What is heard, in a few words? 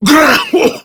Death Prime Grineer Male; Tau; Battle Barks